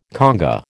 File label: "conga", American